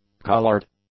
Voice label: "coloured", English; adjectives

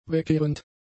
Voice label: "wegkehrend", German; adjectives

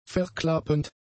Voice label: "verklappend", German; adjectives

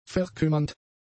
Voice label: "verkümmernd", German; adjectives

